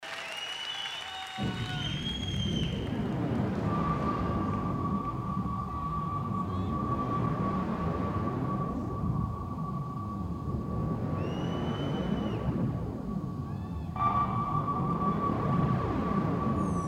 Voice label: narration